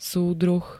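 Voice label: "súdruh", Slovak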